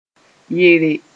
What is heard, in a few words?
"yere", Mooré; wear clothes